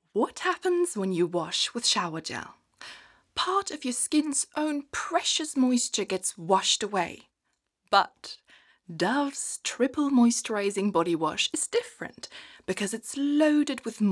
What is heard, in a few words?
Ad English; Dove